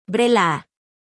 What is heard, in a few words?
Phoneme Female; Umshk; Brelo